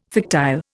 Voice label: "fictile", Indian